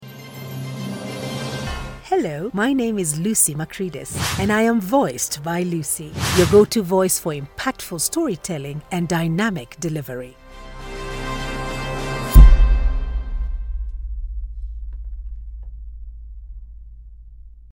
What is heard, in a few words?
English VO Drop Global South Accent FVO; voice actor located in Nairobi, Kenya; Vuka Studios